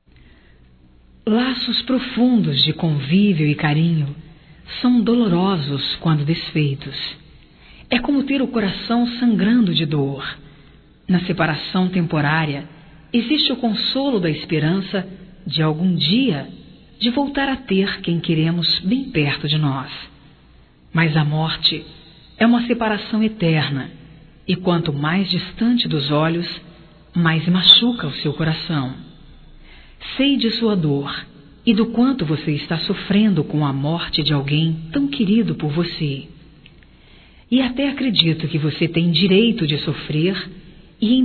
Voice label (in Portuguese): Pêsames Geral Feminino, Telemensagens